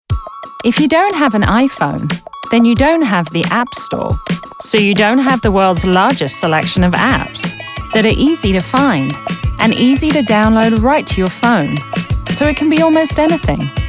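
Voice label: Commercial, voice over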